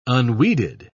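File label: "unweeded", English, British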